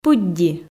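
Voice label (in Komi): "пыдди", Komi, female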